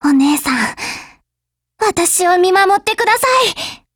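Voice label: GO ATTACK Japanese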